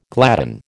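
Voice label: "gladden", American English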